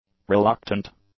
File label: "reluctant", English; adjectives